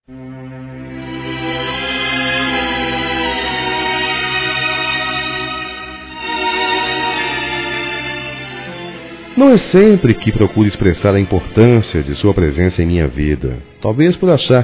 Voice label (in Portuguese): Dia mulher para avó masc; Telemensagens 2017; Dia Internacional da Mulher